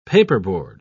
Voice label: "paperboard", pronounce

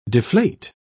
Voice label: "deflate", pronounce

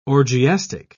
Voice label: "orgiastic", pronounce

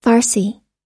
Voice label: "farsi", pronounce

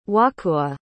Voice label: "wahkoowah", pronounce

